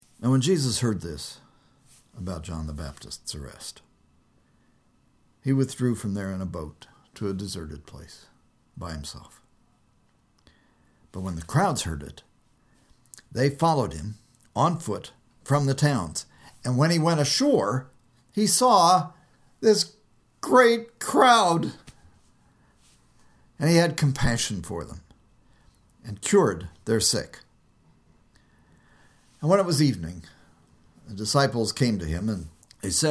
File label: storytelling